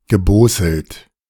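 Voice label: "geboßelt", German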